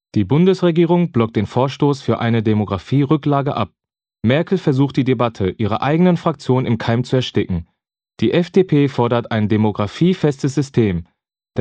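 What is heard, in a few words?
German, male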